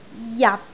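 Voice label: "jat6", Cantonese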